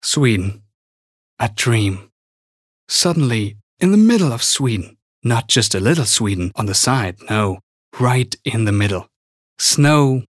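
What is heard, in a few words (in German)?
US English, Schauspiel, Variabel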